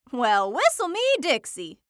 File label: Cartoon Voice Female; Cartoon Voices; Blastwave